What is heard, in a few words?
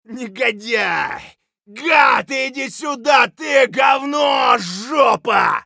scav battlecry; eft